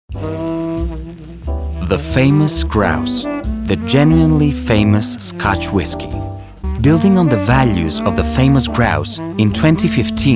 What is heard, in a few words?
English; Famous Grouse